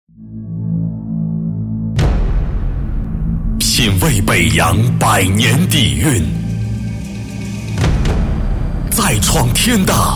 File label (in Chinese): 男40 品牌广告, 国语男声配音